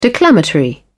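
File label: "declamatory", British English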